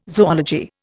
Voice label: "zoology", Indian

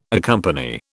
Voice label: "accompany", British

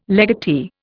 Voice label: "legatee", Indian

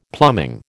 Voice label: "plumbing", American

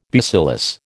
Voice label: "bacillus", American

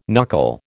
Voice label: "knuckle", American

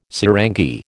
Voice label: "sarangi", American

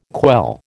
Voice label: "quell", American

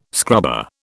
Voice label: "scrubber", British

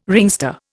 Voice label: "ringster", Indian

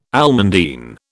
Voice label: "almandine", British

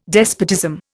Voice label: "despotism", Indian

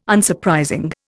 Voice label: "Unsurprising", Indian

